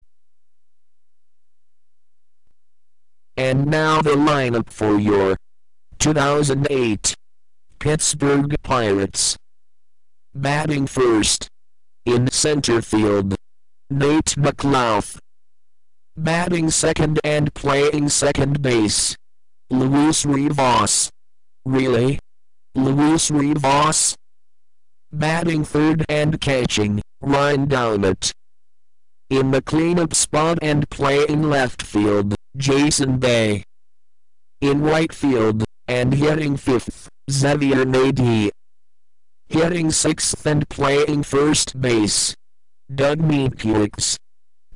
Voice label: announcer